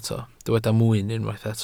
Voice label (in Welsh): "mwyn", Welsh